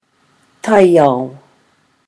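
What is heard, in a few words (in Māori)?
"taiao", Māori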